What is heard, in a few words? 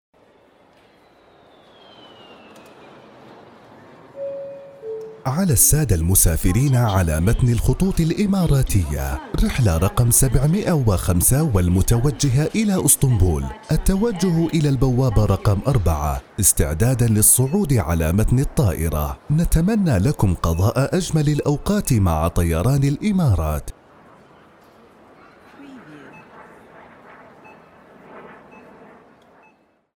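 airport announcement sample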